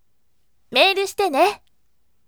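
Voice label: "mail shitene", bright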